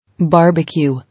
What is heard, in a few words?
"Barbecue", English